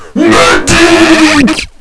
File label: medic, radio